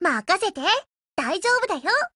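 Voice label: BLACK ACTION Japanese, Voice; GF Alarm; EVO3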